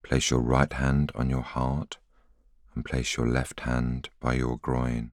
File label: voiceover